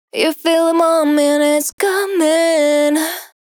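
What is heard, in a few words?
123 A minor lead female vocal dry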